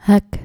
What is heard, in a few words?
"hek", Dutch